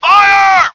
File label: "Fire!" English; BF1942